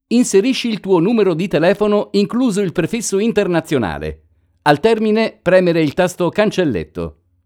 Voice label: Italian phone number with country code